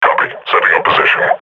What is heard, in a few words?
combat idle, grunt